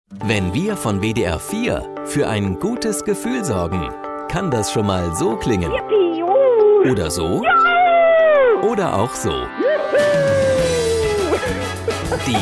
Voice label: promo; wdr4